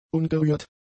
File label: "ungerührt", German; adjectives